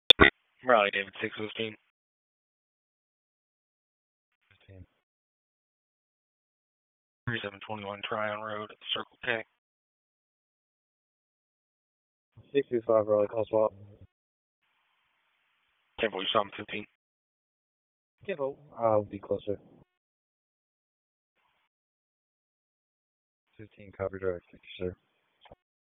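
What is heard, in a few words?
Police Southwest District Dispatch, p25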